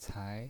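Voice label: "cai", Mandarin Chinese, second tone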